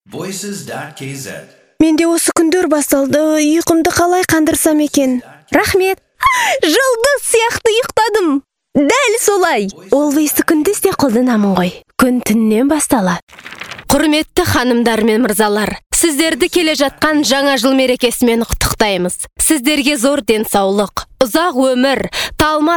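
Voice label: Kazakh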